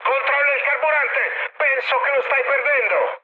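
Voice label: Italian tank damaged mood high, speech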